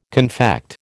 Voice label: "confect", American

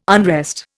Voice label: "unrest", Indian

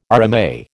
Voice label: "RMA", American

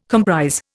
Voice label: "comprise", Indian

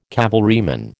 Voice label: "cavalryman", American

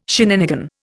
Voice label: "shenanigan", Indian

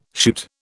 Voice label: "shoot", British

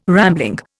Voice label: "rambling", Indian

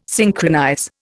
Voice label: "synchronize", Indian

